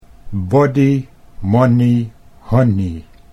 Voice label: "body money honey", Dutch